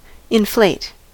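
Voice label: "inflate", American English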